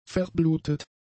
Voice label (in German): "verblutet", German; adjectives